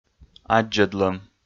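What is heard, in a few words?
"аддзӧдлӧм", Komi, male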